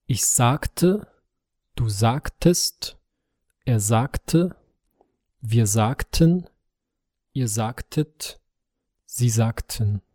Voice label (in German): Imperfekt sagen, duits